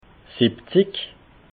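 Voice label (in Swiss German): "siwwezig", Alsatian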